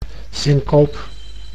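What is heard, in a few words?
"syncope", Dutch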